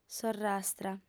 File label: "sorrastra", audiofem